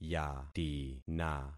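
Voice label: "yatina", concatenated syllables; Affixing experiment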